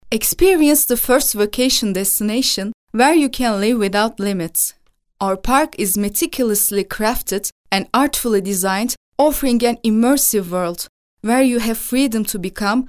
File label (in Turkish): İNGİLİZCE